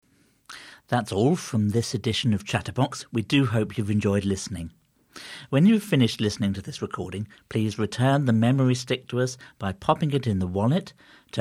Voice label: Closing Announcement; Chatterbox; Norwich Evening News